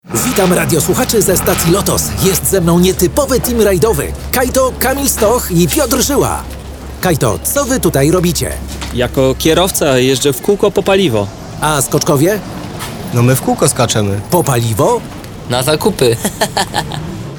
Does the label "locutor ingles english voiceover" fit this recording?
No